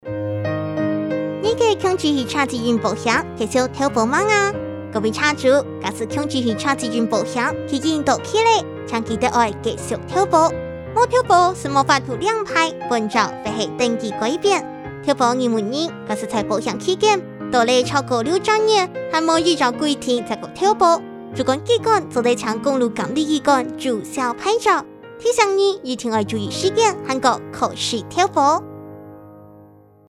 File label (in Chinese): 客語